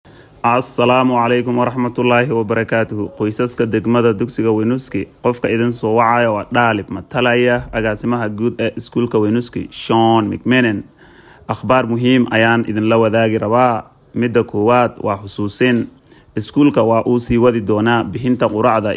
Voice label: somali